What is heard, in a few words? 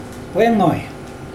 tell me, Mokilese